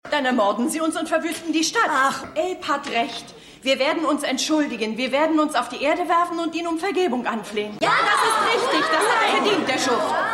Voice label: Frau; AusgerechnetAlaska

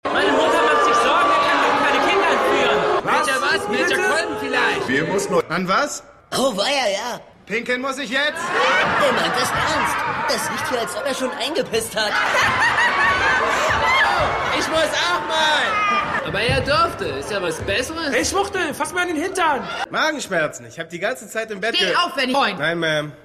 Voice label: Junge; Tyrell; The Wire

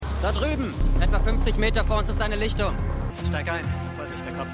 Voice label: Polizist, Stimmproben; LAW & ORDER

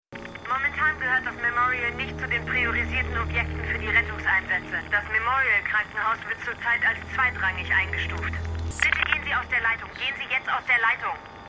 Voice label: Funkerin; MEMORIAL HOSPITAL - DIE TAGE NACH HURRIKAN KATRINA (DF 2022); Five Days at Memorial

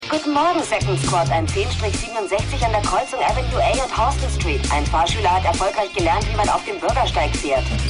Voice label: Disponentin; The Unusuals

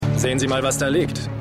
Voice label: Polizist, Stimmproben

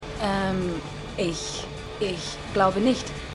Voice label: Assistentin; Succession

